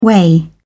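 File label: "whey", British English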